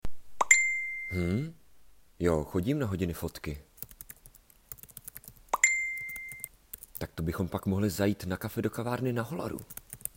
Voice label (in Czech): reklama; Hollar